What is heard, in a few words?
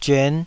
"zyuan5", taiwanese